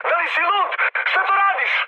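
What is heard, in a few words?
Serbian player killed ally mood high, speech; War Thunder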